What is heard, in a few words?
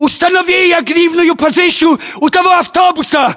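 order cover bus, battlechatter, Russian; localized english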